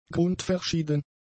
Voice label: "grundverschieden", German; adjectives